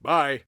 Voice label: Bye, Soldier Male